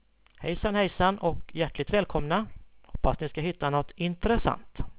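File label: "hejsan", Swedish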